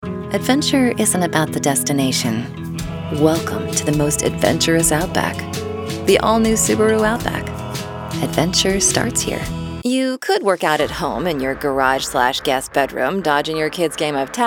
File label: Commercial